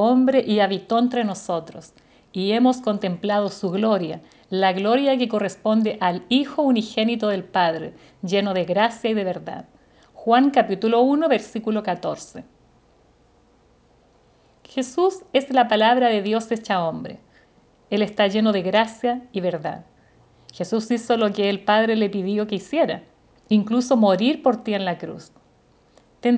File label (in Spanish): devocional